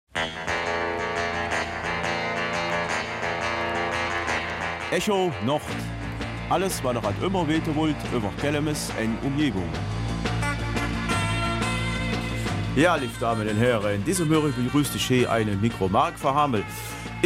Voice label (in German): Kelmiser Mundart; Freunde von Muramba